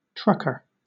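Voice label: "trucker", British English; pronunciations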